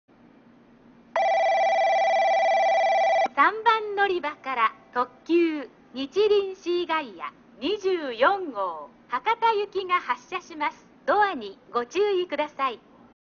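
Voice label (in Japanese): 3番のりば発車放送, 中津駅; にちりんシーガイア24号 博多行き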